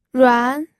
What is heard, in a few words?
"ruan2", pinyin